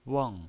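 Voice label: "weng", pinyin voice, fourth tone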